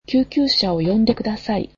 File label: "Call the ambulance", japanese